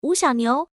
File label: female